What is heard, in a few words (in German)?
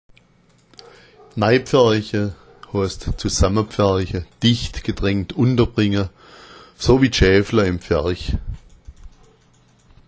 "neipfercha", schwaebisch